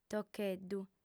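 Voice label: "tocheddu", Sardinian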